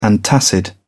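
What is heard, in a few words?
"antacid", British English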